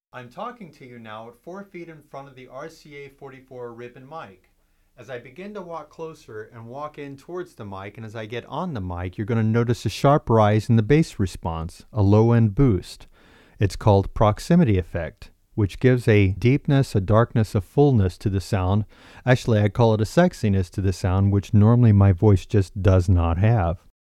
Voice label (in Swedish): Proximity effekt; Mikrofontest